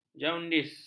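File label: "jaundis", Malay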